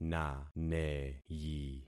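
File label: "naneyi", concatenated syllables; Affixing experiment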